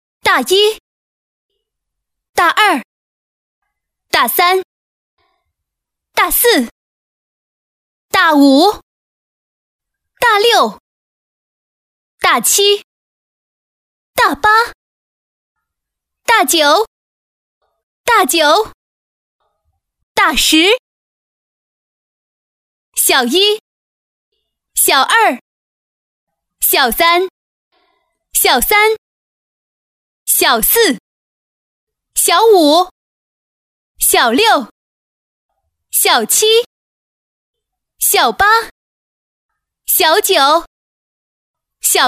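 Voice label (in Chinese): 女 游戏棋牌, 配音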